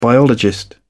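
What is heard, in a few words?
"biologist", British English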